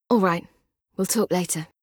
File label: Female; Skyrim Special Edition; Dawnguard Beleval